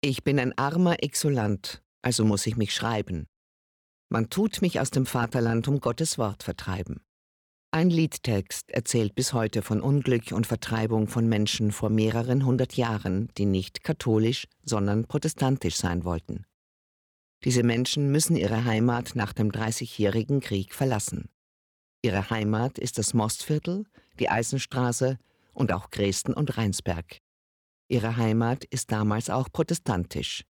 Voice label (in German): Schulfilm VO; Exulanten